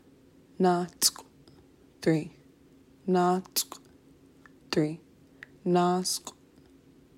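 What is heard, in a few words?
"three", Tlingit; numbers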